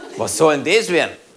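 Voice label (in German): "was soll des", German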